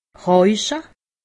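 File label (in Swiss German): "rauche", Alsatian; medecine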